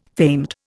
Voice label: "famed", Indian